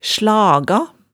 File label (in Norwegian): "slaga", hemsedalsmaalet